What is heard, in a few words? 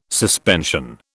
"suspension", British